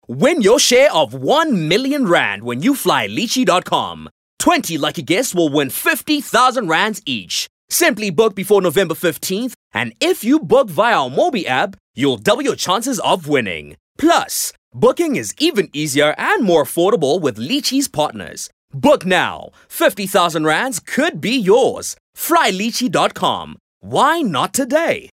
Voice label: English HardSell